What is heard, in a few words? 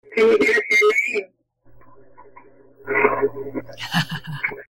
No and an evil laugh; Windham; EVP; Third Investigation